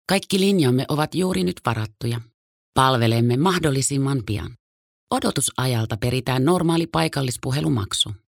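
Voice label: Finnish, female, IVR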